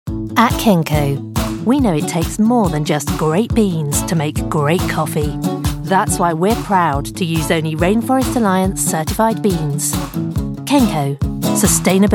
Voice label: commercial, Sincere Neutral/Southern UK Voiceovers